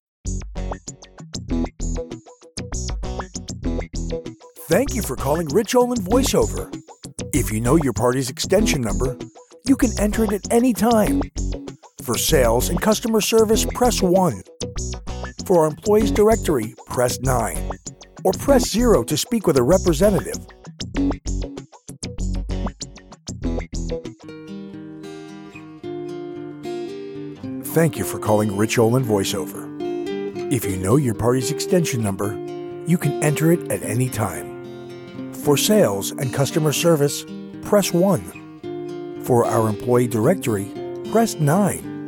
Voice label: IVR

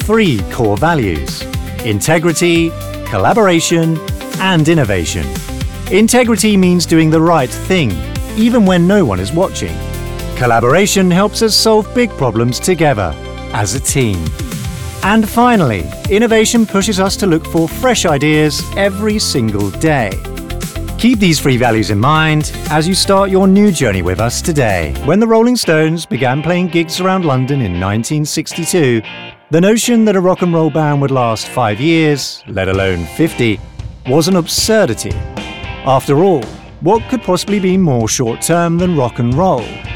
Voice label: Narrative

